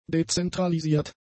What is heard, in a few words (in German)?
"dezentralisiert", german; adjectives